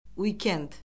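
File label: "uik-end", Azerbaijani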